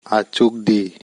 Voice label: "Achukde", Kokborok; Sit